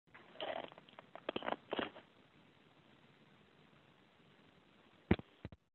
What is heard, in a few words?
Voicemail